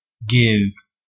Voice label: "give", us

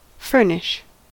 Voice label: "furnish", us

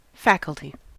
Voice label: "faculty", us

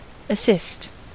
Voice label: "assist", us

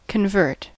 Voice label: "convert", us

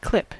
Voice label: "clip", us

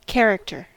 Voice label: "character", us

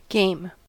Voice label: "game", us

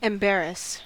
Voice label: "embarrass", us

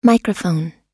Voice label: microphone; oxford

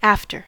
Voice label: "after", us

